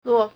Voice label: "ruo4", Chinese